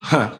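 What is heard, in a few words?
"huh", vocal sample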